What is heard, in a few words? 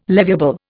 "leviable", Indian